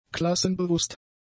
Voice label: "klassenbewusst", German; adjectives